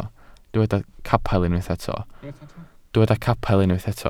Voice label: "capel", Welsh

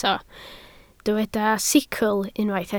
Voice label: "sicl", Welsh

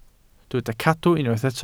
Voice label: "cadw", Welsh

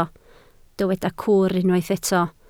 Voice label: "cwr", Welsh

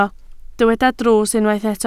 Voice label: "drws", Welsh

